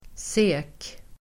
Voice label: "sek", Swedish